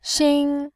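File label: "xin", Mandarin Chinese, tone 1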